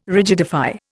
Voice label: "rigidify", Indian